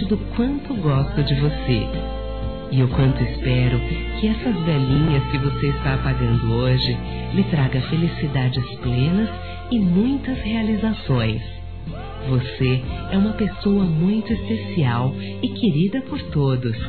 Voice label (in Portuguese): aniversário geral f; Aniversários; Aniversários diversos; fem